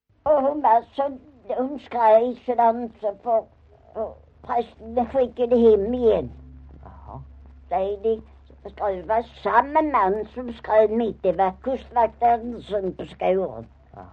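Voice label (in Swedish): Maglarp Skåne, Svenska sagor dialekter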